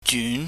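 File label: "jyun", Cantonese, mid rising tone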